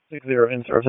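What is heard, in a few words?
EMS Dispatch, p25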